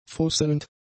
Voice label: "fusselnd", German; adjectives

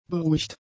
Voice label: "beruhigt", German; adjectives

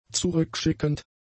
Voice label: "zurückschickend", German; adjectives

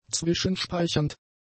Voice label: "zwischenspeichernd", German; adjectives

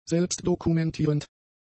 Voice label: "selbstdokumentierend", German; adjectives

